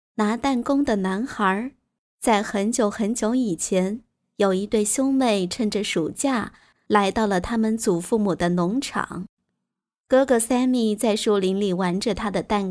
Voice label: Chinese, Female